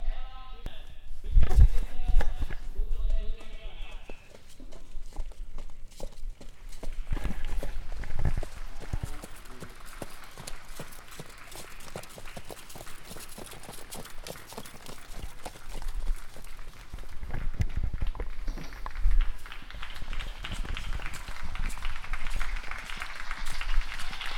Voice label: Announcing the knights